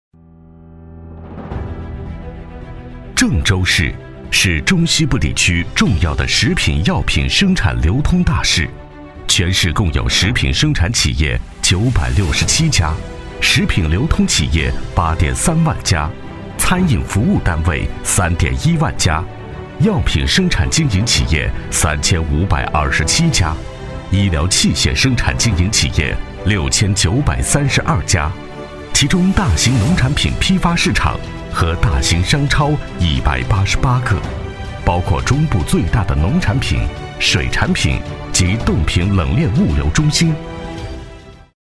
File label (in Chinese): 男 专题 厚重磁性介绍; 郑州食药监局